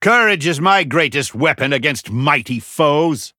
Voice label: Male Human Bark